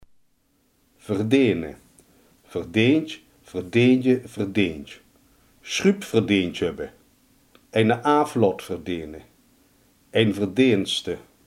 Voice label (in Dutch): "verdienen", limburgse